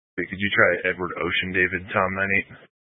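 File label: Sheriff Dispatch, Scanner audio recording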